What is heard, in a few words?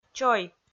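"чой", Komi-Zyrian, female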